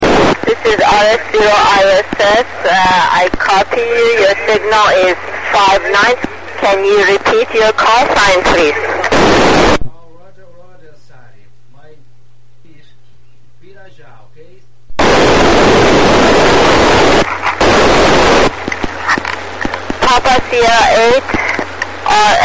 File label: QSO